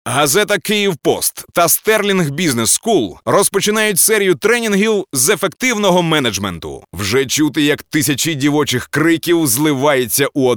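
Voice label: Ukrainian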